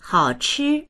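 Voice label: "hao3chi1", Mandarin Chinese; 好吃; おいしい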